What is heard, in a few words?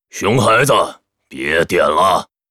pissed